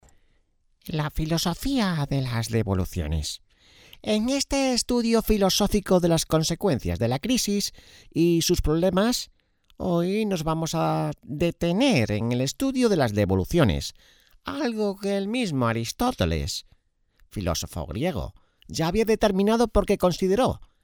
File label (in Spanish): locutor guion crisis devoluciones; La filosofía de las devoluciones.